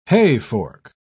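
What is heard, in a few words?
"hayfork", British English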